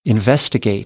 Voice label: "investigate", American; ə - ɪ

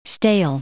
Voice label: "stale", English

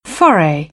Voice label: "foray", British; AmericanBritish

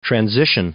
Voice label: "transition", English; noun

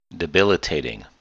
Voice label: "debilitating", English

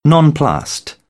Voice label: "nonplussed", British; AmericanBritish

